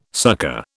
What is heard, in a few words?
"succor", British